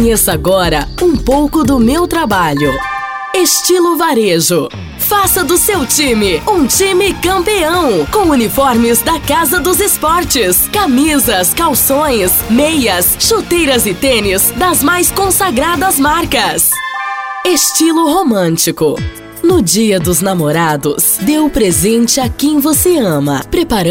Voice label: locutora

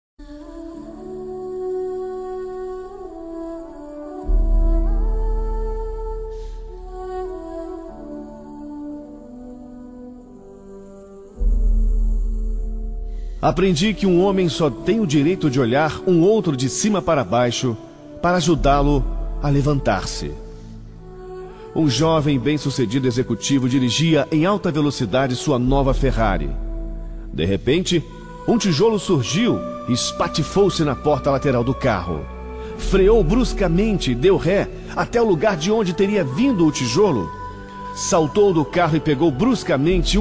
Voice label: locutor

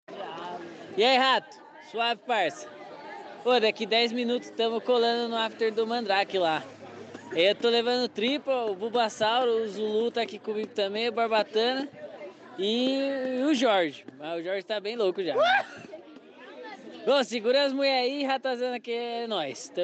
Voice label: mensagem de voz

